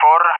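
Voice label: "4", English speech; Allied Flight; Digits; DCS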